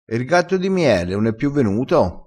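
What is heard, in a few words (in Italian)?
"dissela poo", dialettale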